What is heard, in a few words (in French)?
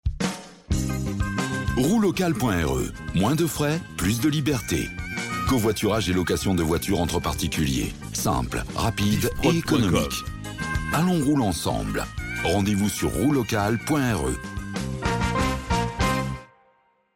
Spot, publicite; First Reunion; Roulocalre